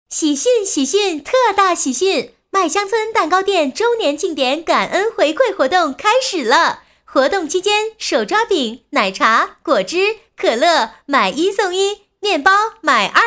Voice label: 女 促销, 国语女声配音; 麦香村蛋糕店

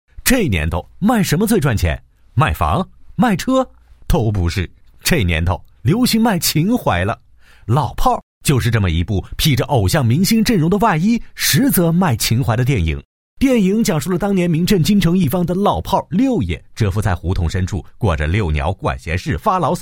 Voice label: 男 轻松风格 影评, 国语男声配音; 老炮儿

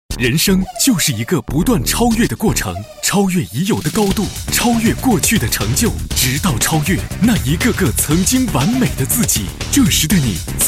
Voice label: 男 电台 汽车广告

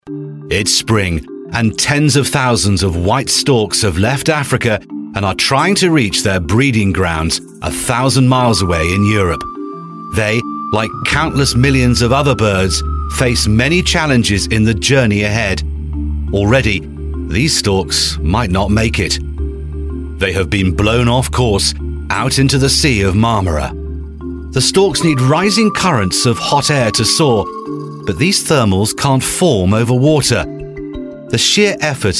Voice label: 外籍 纪录片 非洲动物世界 轻快

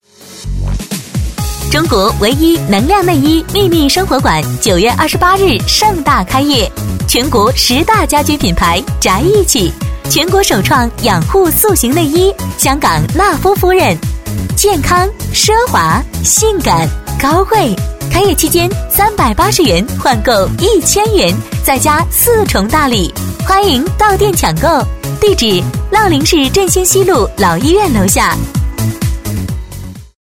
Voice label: 促销 内衣生活馆, 国语女声配音; 秘密内衣生活馆

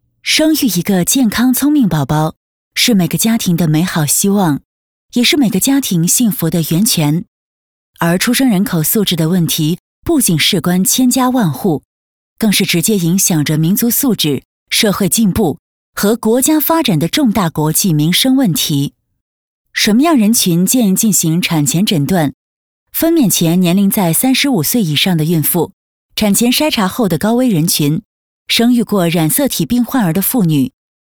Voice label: 解说 妇幼院 稳重自然

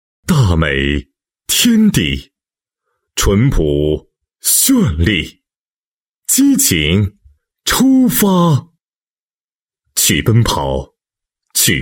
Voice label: 男-宣传广告; 东盟山地马拉松赛

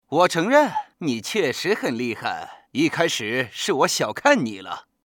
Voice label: 男 游戏角色配音

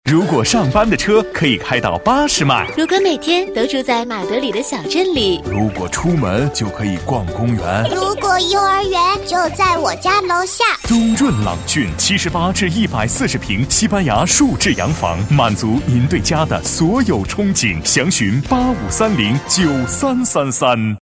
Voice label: 女 广告 房产多角色; 双语配音; 东润朗郡

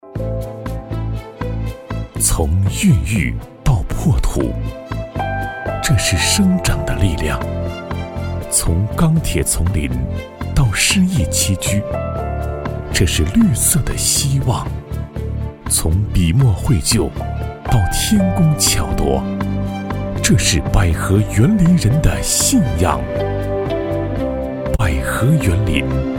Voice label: 男 宣传片 高端大气; 百合园林

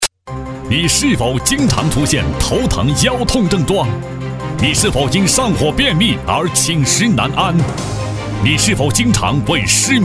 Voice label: 酒招商; 莱典

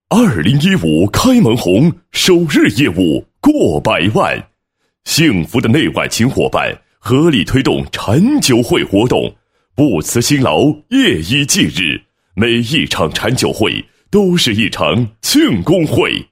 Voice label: 男 颁奖词, 配音案例; 幸福人寿安徽分公司颁奖词

